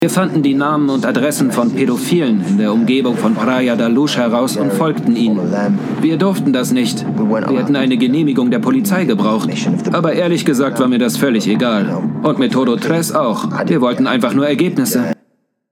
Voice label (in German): VOICE OVER Doku; Netflix